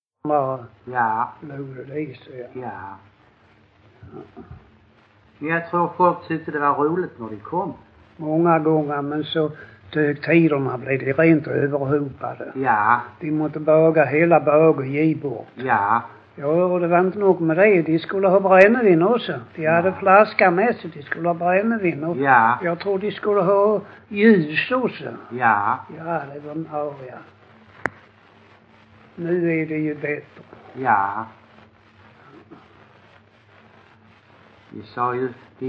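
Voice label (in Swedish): Lackalänga Skåne, Svenska sagor dialekter; Folkminnen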